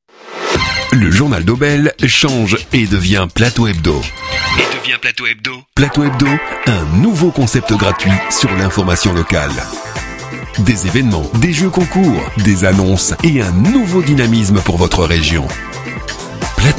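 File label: FR M commercial, French, male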